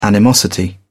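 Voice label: "animosity", British English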